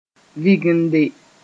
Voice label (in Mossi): "vɩgende", moore